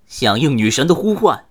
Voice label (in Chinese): 回合开始, BattleCry, 年轻的修士; ycrpg